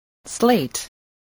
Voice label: "slate", voice, spelling